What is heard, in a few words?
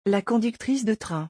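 "La conductrice de train", French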